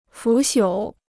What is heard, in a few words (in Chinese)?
"腐朽", Chinese